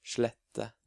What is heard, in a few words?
"Slette", Norwegian